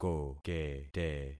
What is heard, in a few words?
"kokete", concat syllables; Affixing experiment